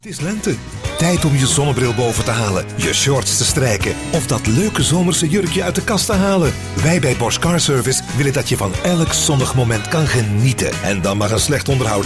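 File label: Dutch Flemish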